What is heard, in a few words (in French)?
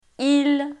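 "île", French; Lingvo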